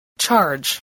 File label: "charge", spelling